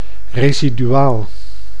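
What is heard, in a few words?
"residuaal", Dutch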